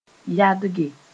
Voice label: "yadge", Moore